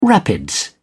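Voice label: "rapids", British English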